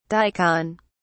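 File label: "daikon", British English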